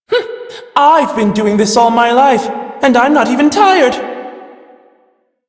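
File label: Male Brisk en, ambient dialog; Warframe; Duviri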